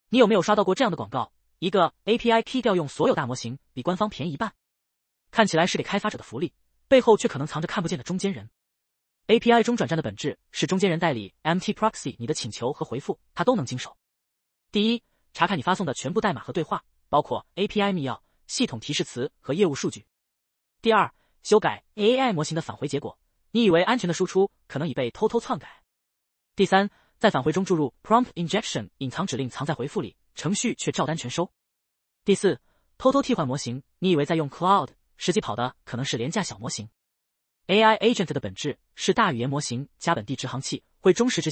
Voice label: voiceover